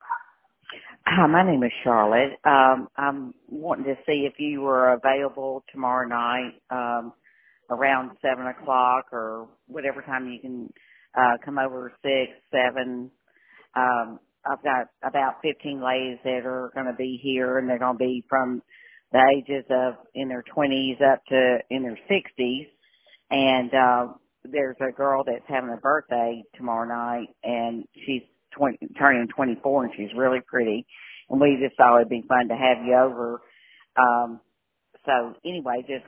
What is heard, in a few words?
Voicemail